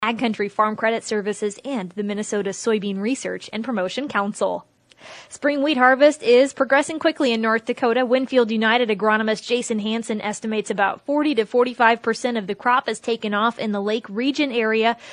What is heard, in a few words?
air check; WinField United